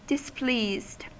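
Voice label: "displeased", American English; pronunciations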